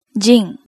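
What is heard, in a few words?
"jin", Japanese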